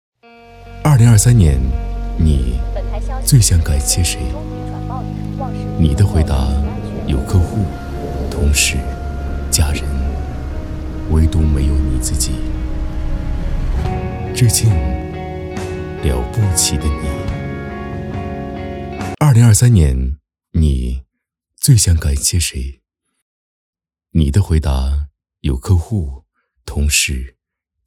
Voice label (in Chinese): 成熟走心房产